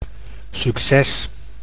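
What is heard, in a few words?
"succes", Dutch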